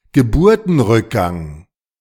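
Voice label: German "Geburtenrückgang"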